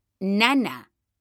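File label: "nana", Spanish, female; mekanta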